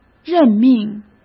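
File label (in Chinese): "任命", Mandarin Chinese; hsk; chinese-flashcards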